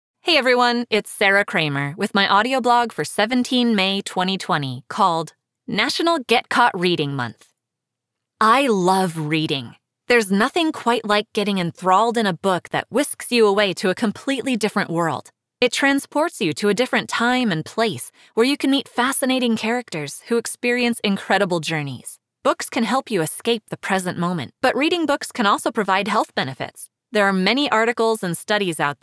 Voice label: audiobooks